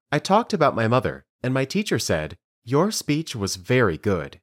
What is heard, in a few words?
Dictation